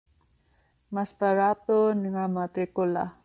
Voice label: Cebuano